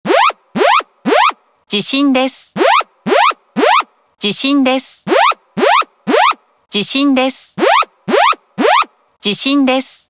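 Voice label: alert, Japanese; iOS 26 Sounds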